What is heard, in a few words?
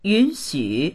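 "yun3xu3", Chinese; yǔnxǔ; 允许; 許容（する）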